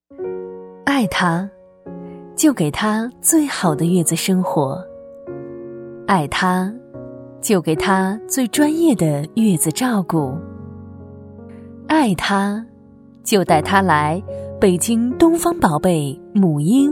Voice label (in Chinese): 专题 企业 母婴护理中心 温柔